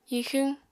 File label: "hixwñ", apatani